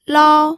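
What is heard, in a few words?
"lao", first tone, pinyin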